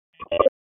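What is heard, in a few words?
Raleigh Police North District Tactical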